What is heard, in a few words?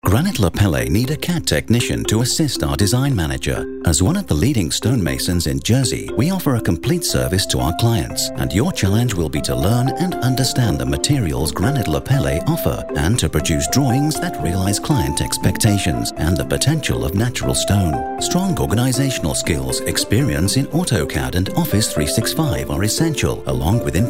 British Voiceover Radio Commercial; RECRUITMENT; RP